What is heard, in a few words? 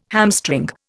"hamstring", Indian